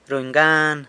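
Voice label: "runy gan", San Lucas Quiaviní Zapotec; wins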